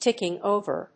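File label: "ticking-over", English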